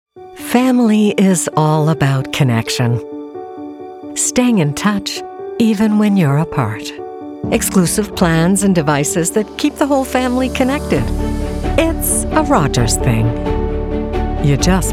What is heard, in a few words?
Commercials Friendly warm nurturing playful